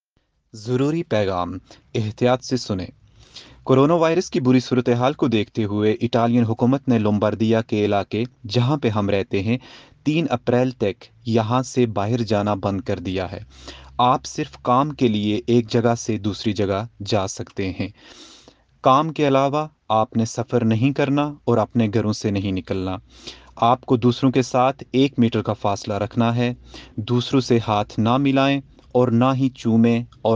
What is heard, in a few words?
Urdu; Covid 19